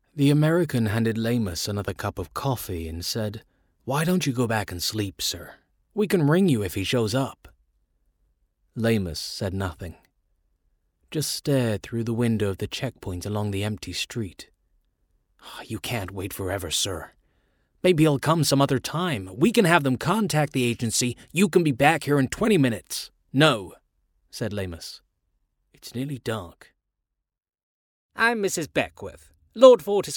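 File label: UK English, audiobook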